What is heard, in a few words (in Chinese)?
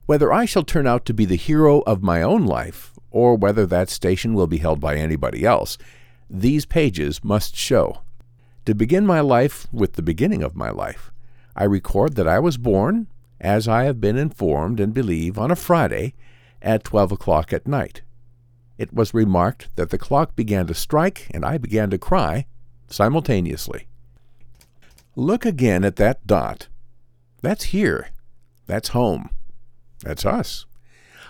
外籍英男, 电子书, 干音叙述